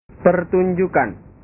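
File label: "pertunjukan", Indonesian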